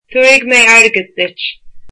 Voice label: I will give you money, irish

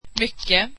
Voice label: very, swedish

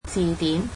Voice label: dictionary, cantonese

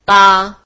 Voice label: marathi why

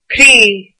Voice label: heart, irish

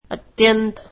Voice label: marathi very

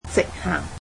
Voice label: "Go straight", Cantonese

